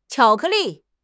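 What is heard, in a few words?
"qiao3 ke4 li4", Mandarin Chinese; HSK; HSK4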